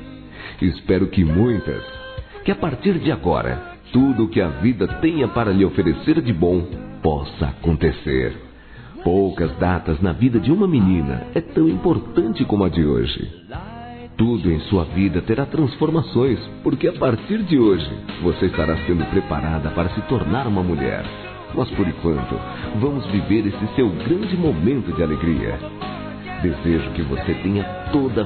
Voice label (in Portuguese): Aniversário 15 anos Masculino, Telemensagens; Telemensagens 2017; Aniversários